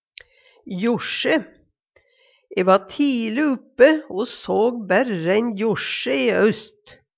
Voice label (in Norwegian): josje, Numedalsmål